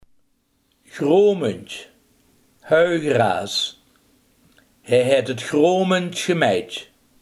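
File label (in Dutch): hooigras, Limburgse gesproken woordenboeken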